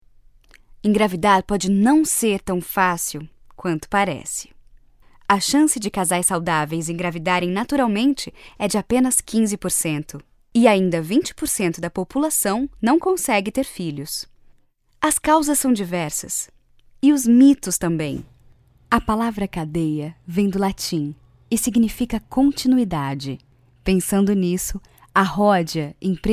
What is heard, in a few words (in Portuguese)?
Narração